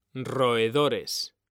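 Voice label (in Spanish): "ROEDORES", Spanish; mekanta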